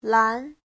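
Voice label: "lán", Mandarin Chinese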